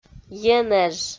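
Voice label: "енэж", Komi, female; audio dict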